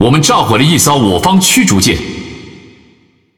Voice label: Speech Destroy Ally Destroyer, ZH TW; World Of Warships

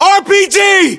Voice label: US threat rpg, battlechatter; english

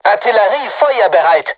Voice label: voice message art charged, crew tanks german

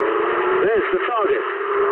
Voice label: eng near waypoint mood low, speech eng

